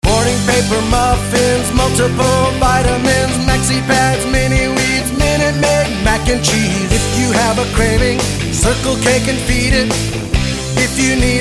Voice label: commercial

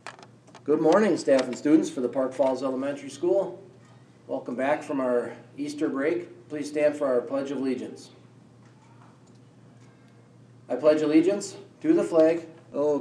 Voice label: announcements

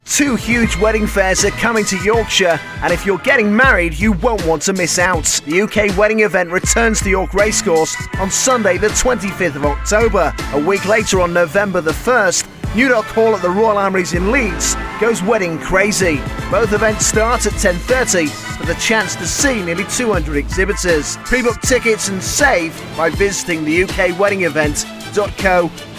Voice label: advert; heart yorkshire; the uk wedding event

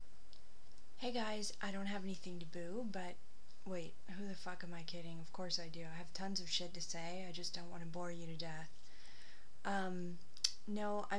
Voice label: mic check one two one two

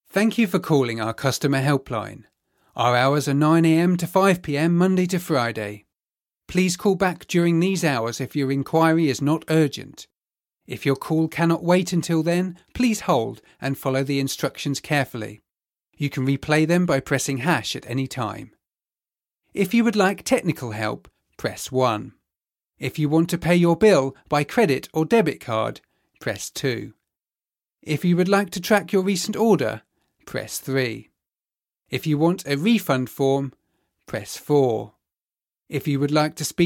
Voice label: ivr

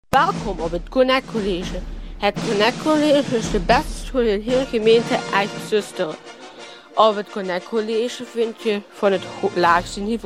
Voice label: radio commercial; ConnectCollege

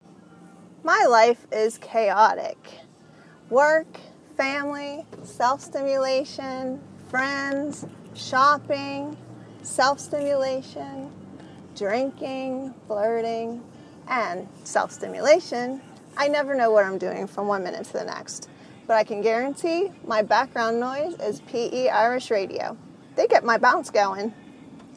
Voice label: irish radio

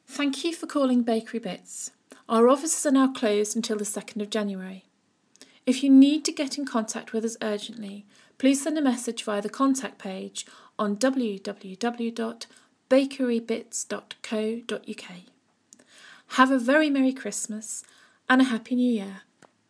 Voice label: voicemail

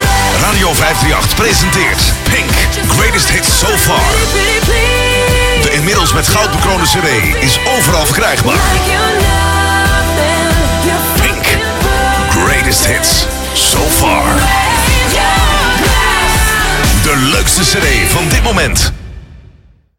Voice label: radio commercial

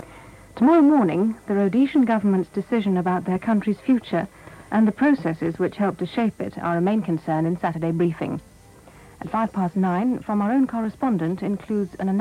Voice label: 1975 radio 4 continuity announcement

